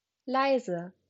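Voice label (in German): "leise", German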